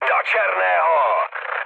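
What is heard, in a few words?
bomb success, Czech, mood high; speech; War Thunder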